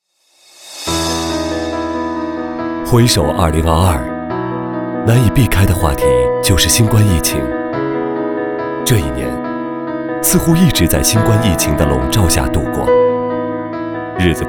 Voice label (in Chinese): B男 走心旁白 年会宣传